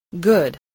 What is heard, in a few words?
"good", spelling